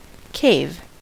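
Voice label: "cave", American English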